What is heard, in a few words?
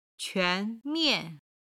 "quan2mian4", Chinese